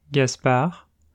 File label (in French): "Gaspard", French